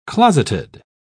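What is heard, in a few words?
"closeted", British English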